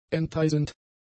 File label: "enteisend", German; adjectives